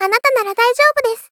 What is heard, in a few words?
Polite Cheering "Anata nara daijobu desu"